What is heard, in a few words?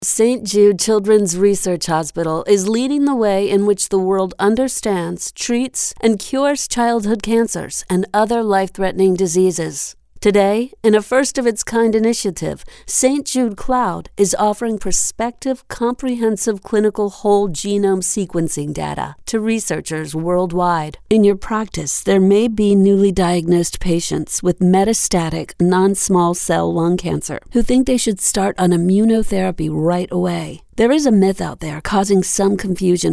30s40s Female Narration